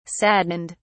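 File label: "saddened", English, British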